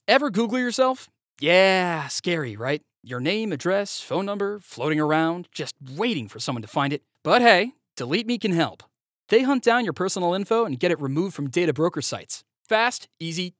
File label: Online Ad Spot; DeleteMe